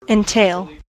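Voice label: "entail", US English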